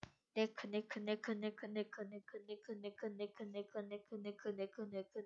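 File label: "neck", spoken word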